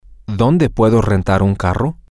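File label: where can I rent a car, Spanish, male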